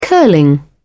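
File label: "curling", British English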